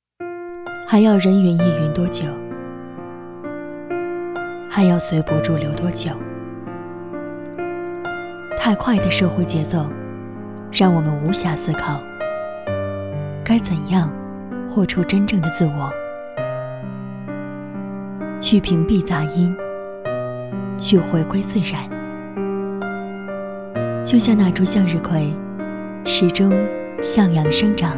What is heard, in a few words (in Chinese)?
女 温情类地产广告; 向日葵